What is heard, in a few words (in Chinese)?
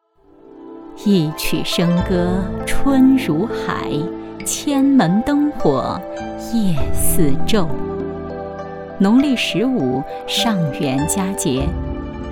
女 抒情 纪录片; 东方古典艺术的珍品纪录片; 文献类案例